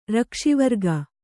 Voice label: "ರಕ್ಷಿವರ್ಗ", Kannada; rakṣivarga; noun